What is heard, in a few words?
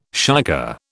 "shicker", British